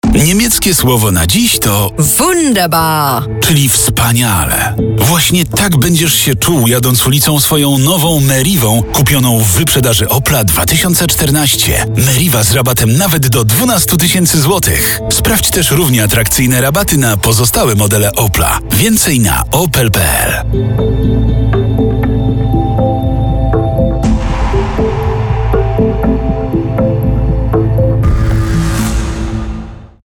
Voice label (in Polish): reklama; meriva wunderbar